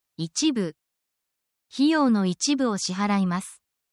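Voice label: Japanese word, essential Japanese phrases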